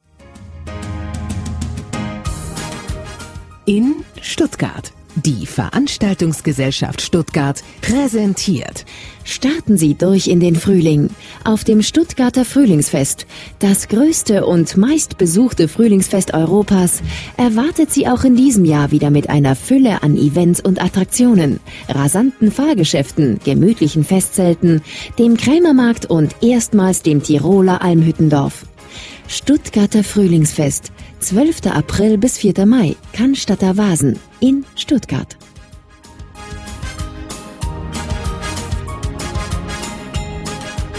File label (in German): Werbespot; inStuttgart